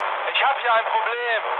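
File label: German aircraft damaged mood low, speech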